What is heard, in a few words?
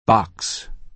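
"box" American English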